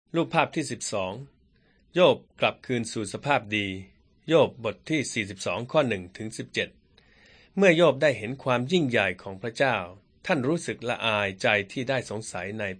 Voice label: beginning with god thai central